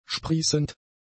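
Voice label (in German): "sprießend", German; adjectives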